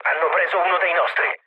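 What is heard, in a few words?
Italian aircraft destroyed mood low, speech; War Thunder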